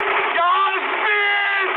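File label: Russian aircraft destroyed mood high, speech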